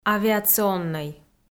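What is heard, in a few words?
"авиационнӧй", Komi, female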